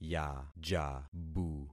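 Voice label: "yajabu", concatenated syllables; Affixing experiment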